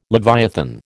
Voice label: "leviathan", American